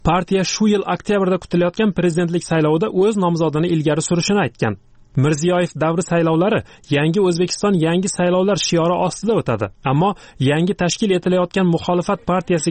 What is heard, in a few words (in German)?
usbekisch, Sprachen